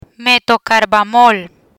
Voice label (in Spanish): "Metocarbamol", Spanish; muscle relaxants; learn spanish